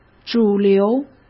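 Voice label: "主流", Mandarin Chinese; chinese flashcards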